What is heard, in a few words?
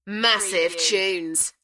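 British female Massive tunes; beanstalk audio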